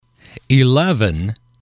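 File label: "eleven", English, male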